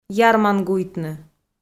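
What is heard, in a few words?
"ярмангуйтны", Komi, female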